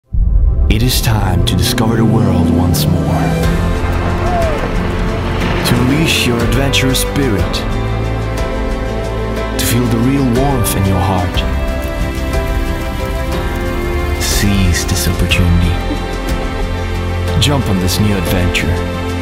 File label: male english with european accent; swedish; cowboystiefel shop commercial